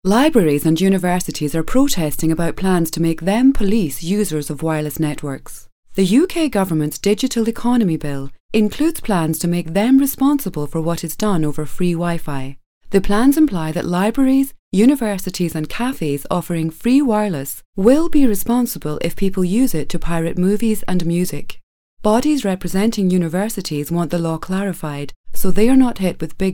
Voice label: News

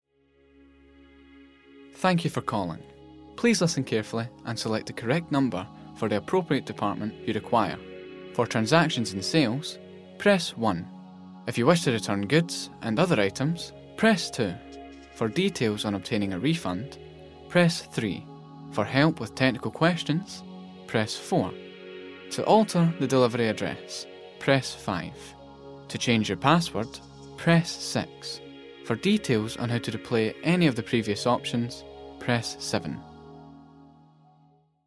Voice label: IVR, voices

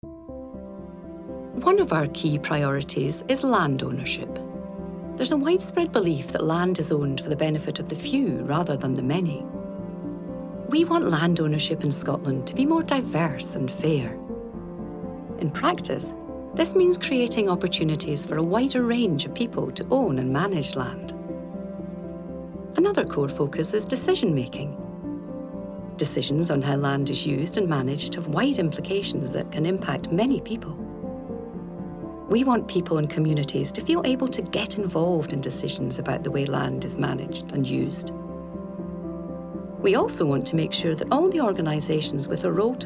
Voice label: Music Mix, Scottish; Scottish Land Commission

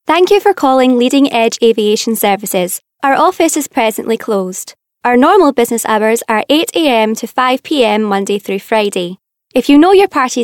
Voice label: Scottish voice over IVR

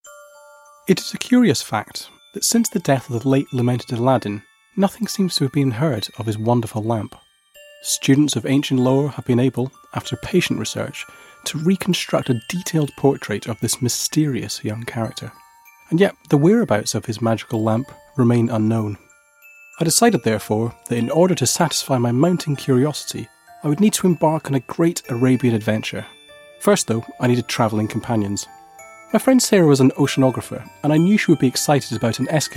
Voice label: Audiobook

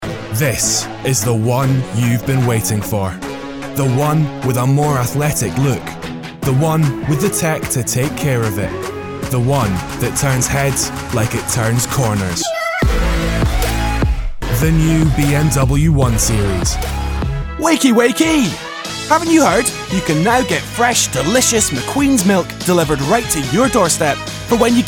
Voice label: Commercial showreel

